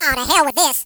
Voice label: HillBilly, Kamikaze; hedgewars